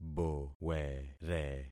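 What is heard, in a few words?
"bowere", concatenated syllables; Affixing experiment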